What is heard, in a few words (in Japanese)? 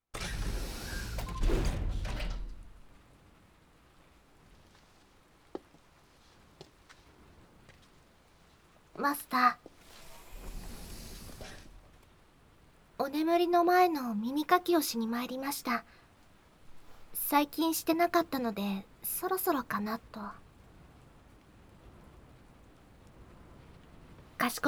耳かきしてあげますね, ASMR, KU100; アンドロイドは夢を見ない～The rain of tears will soon turn to sunrise～